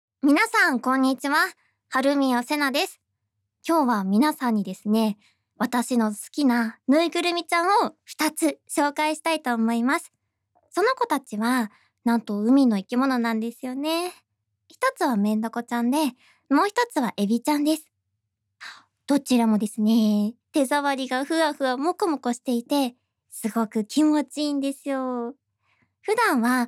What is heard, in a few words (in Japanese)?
フリートーク; Free Conversation